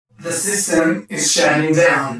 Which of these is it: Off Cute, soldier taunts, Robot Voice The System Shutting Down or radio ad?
Robot Voice The System Shutting Down